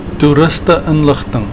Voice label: "toeriste inligting", Afrikaans

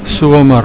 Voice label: "somer", Afrikaans

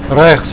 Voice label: "regs", Afrikaans

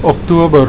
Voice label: "oktober", Afrikaans

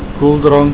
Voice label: "koeldrank", Afrikaans

